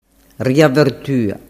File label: "riavertua", dialettu